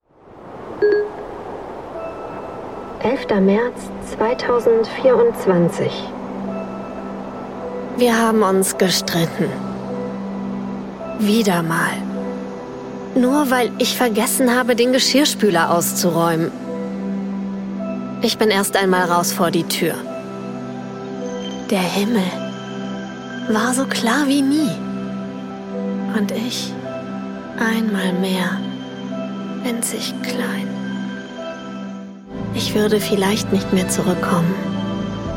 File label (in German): Hoerspiel Tagebuch